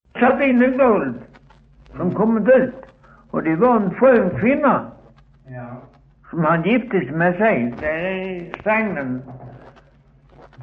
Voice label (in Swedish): Östra Ingelstad SK, dialekter; Folkminnen